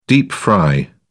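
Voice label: "deep-fry", British English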